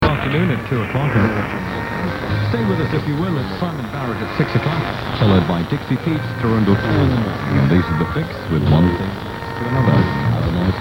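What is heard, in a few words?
caroline, radio; offshore; 963; caroline 80s; archer c90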